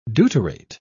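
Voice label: "deuterate", British English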